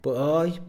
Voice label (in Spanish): "costado", Mixe, hombre; Espaciales